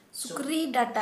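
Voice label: Santali female boar tusk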